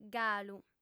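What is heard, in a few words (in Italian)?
"galu", lingua sarda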